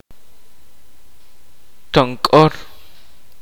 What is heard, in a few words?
kaqchikel; drum